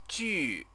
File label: "ju", Chinese